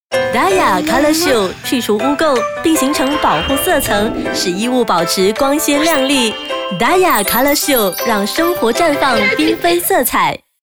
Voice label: VO Sample Announcer

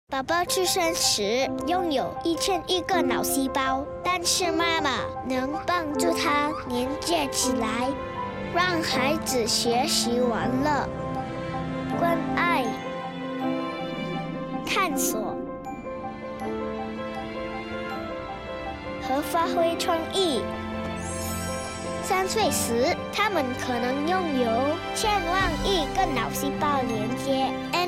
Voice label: 7yrs; Dumex

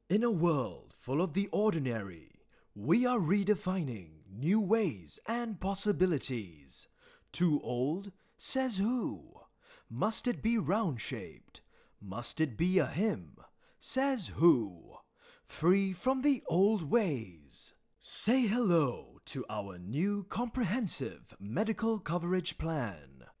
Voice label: Corporate Bold; Manulife

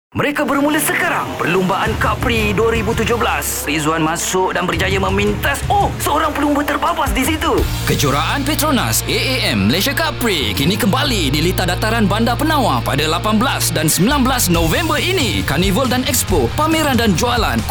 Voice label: COMMERCIAL; ST FM CUB PRIX

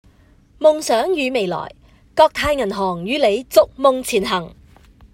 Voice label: Business Video cantonese

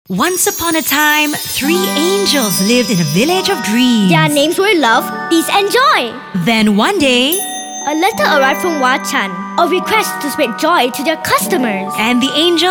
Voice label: MAGICAL CHRISTMAS ENG

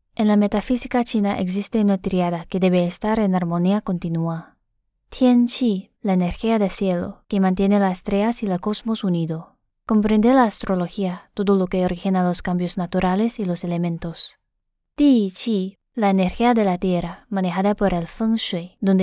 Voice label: Narration Youthful; Qi-Gong

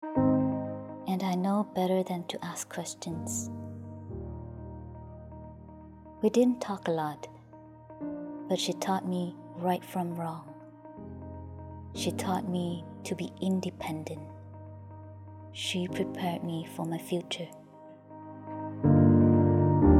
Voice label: English Sample

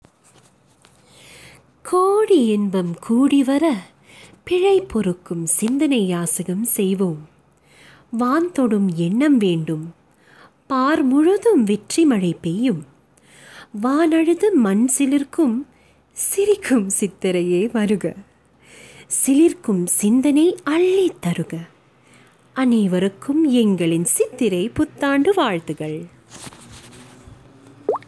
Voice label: Smooth Tamil VO

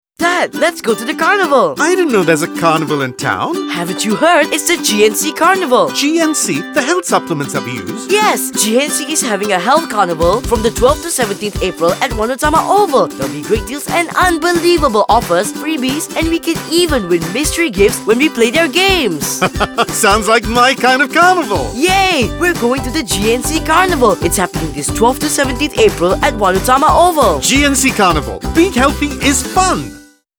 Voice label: ENG; GNC; CARNIVAL

